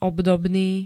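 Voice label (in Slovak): "obdobný", Slovak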